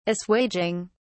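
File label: "assuaging", British English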